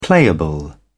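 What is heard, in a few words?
"playable", British English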